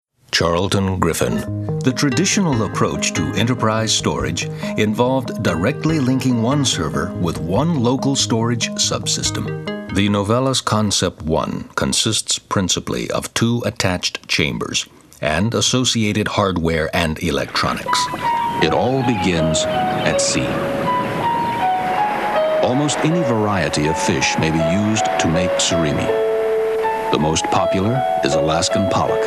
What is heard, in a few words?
narration, men